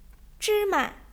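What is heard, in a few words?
"zhi1ma", Chinese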